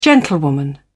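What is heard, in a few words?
"gentlewoman", British English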